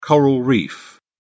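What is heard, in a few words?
"coral reef", British English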